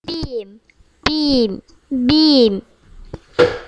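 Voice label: "beam", spoken word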